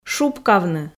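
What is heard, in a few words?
"шупкавны", Komi, female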